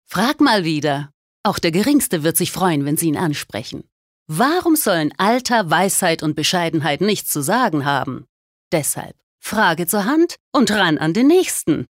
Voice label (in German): Werbung Dynamisch